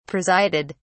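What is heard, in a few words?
"presided", British English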